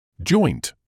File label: "joint", English